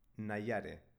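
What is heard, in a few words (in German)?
"naiare", baskische Mädchennamen, Aussprache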